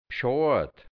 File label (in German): "Bschoad", Burgenland Dialekt hianzisch; Bescheid